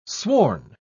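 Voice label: "sworn", English word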